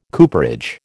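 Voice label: "cooperage", American English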